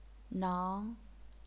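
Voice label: "nang", second tone, chinese pinyin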